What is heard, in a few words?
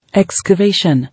"Excavation", English